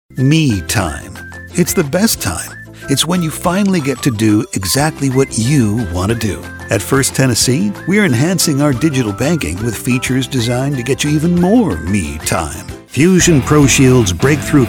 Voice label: ENG